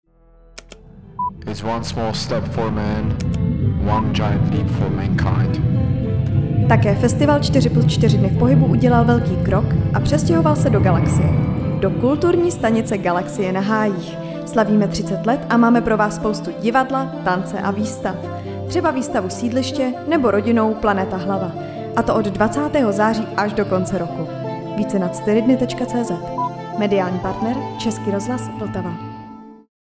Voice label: radiospot